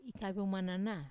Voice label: "ikavio manana", Wamesa; I whisper